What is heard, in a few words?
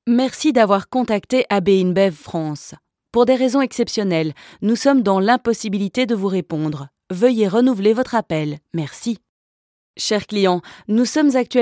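French, IVR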